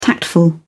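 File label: "tactful", British English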